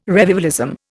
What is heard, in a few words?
"revivalism", Indian